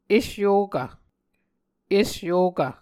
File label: "esyokv", Muscogee